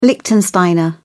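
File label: "liechtensteiner", British English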